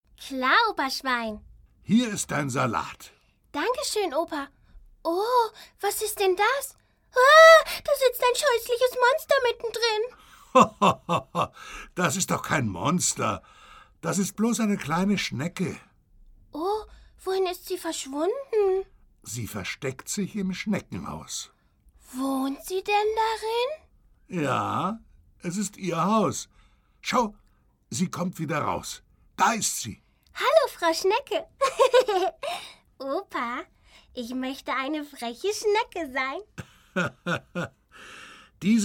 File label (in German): Cartoon Rolle Ferkel, maennliche Stimme